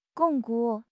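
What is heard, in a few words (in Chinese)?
"巩固", Mandarin Chinese; HSK6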